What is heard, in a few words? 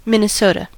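"Minnesota", US English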